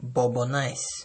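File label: "bobonice" Nigerian Pidgin, male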